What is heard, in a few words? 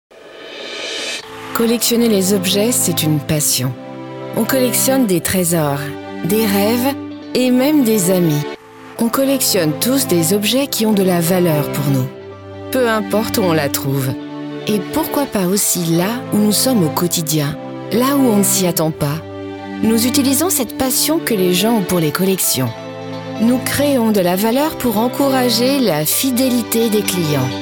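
French, Neutral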